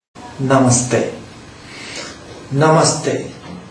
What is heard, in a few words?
Hello in Nepal; Multiculturalism